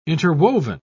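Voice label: "interwoven", British English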